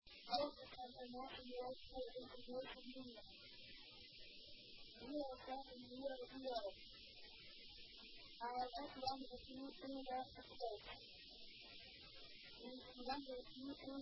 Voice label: ATIS, Japan; osaka; Itami